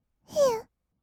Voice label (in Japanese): "へう", kawaii voice; ASMR; 色々なワンショット; 1200 kawaii voice!!